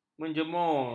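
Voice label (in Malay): "menjemur", Malay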